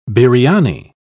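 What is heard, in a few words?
"biriani", British English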